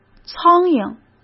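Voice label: "苍蝇", Mandarin Chinese; chinese-flashcards; hsk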